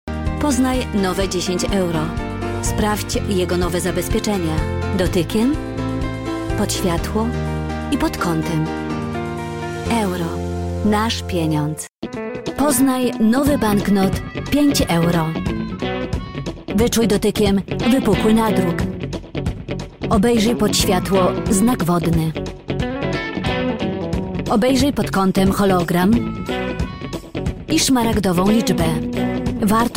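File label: PO F corporate, Polish, female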